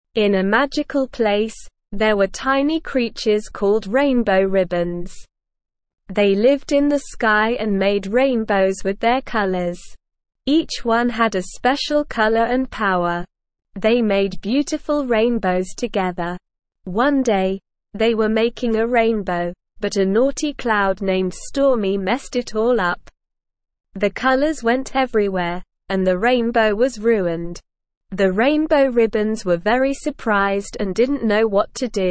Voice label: ESL Short Stories for Kids Beginner SLOW Reading; Rainbow Ribbons in the Sky